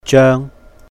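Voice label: "jèung", Cantonese; measure word; 張; holidays and festivals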